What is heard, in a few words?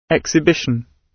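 "exhibition", British English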